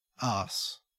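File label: "arse" English, Australian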